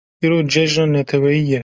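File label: kurdish; iro cejna neteweyi ye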